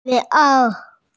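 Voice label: "pi2ao3" Mandarin Chinese, female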